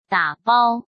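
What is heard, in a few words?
"打包", Mandarin Chinese; hsk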